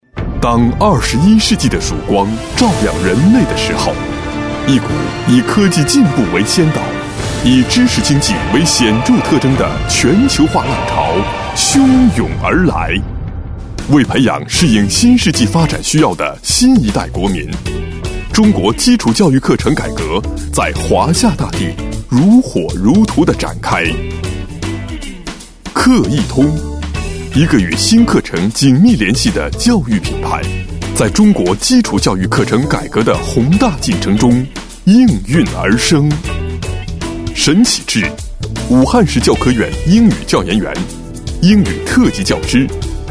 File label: boy